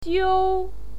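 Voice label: "jiu", Mandarin Chinese, first tone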